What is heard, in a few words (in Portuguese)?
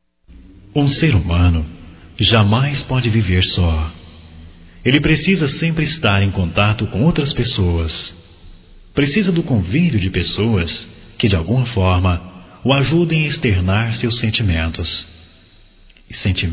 Conselho Geral Masculino, Só Voz; Telemensagens; Telemensagens 2017